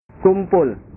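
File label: "kumpul", Indonesian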